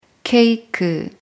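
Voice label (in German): "Torte", German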